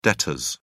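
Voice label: "debtors", English, British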